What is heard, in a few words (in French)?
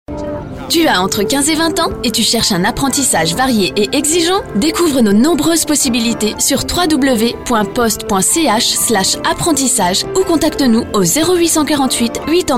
radio pub spot